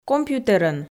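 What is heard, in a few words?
"компьютерӧн", Komi, female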